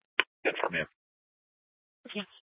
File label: Scottsdale PD Sth Dispatch, scanner